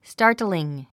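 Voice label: "startling", English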